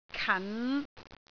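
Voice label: "kan5", Cantonese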